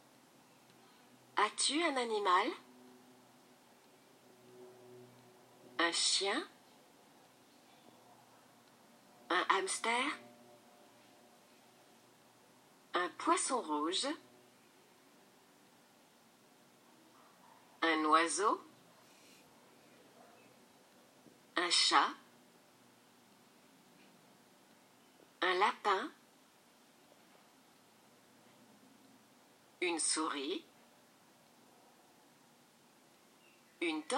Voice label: French voicefile